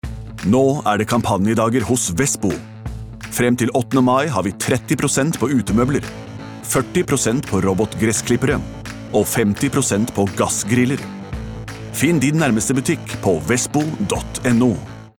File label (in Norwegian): no reklame